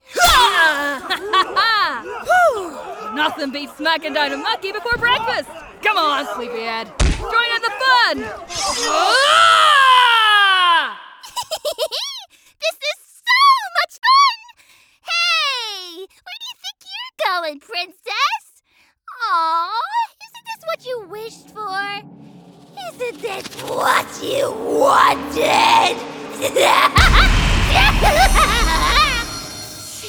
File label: Voiceover, Animation; New York